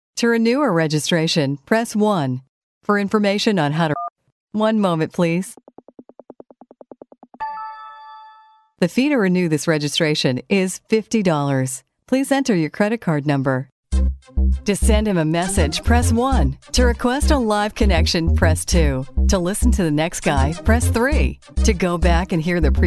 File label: ivr on hold, commercial voiceover